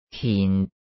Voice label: "kin4", cantonese